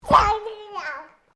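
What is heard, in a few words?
MALE 2 year old PLAYFUL; Talking Sound Effects; Talking (Sound Effects:Voice)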